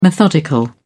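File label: "methodical", English, British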